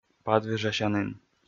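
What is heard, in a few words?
"падвежасянін", Komi, male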